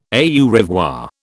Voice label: "au revoir", British